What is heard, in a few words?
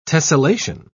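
"tessellation", English, British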